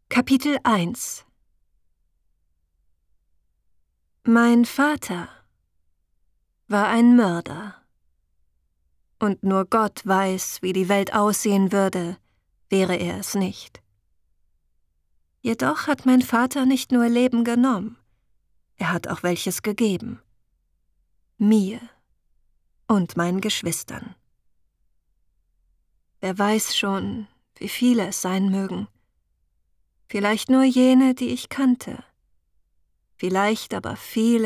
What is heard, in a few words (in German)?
HOERBUCH roman historisch; elisabeth